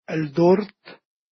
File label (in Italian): "el dort", Dialetto di Albosaggia; Tordo